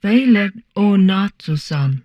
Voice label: humanoid portrait female; Stellaris; humanoids